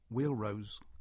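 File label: "Wheal Rose" English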